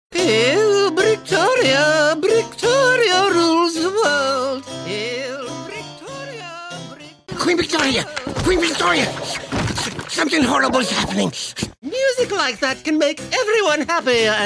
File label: Characters, Voicematch Soundalikes